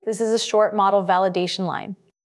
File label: generated multilingual speech; fal-ai/chatterbox/text-to-speech/multilingual